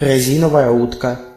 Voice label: rubberduck desc ru; household; stamps